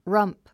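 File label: "rump", English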